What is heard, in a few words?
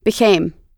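"became", US English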